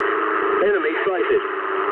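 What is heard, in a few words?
English enemy detected mood low; speech; War Thunder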